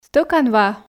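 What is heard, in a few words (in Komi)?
стӧкан ва, Komi, female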